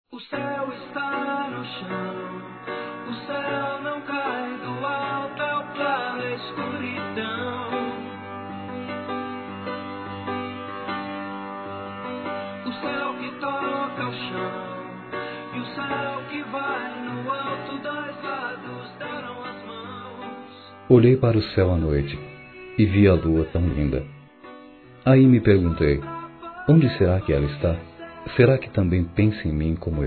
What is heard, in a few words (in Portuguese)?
Reconciliação Masculino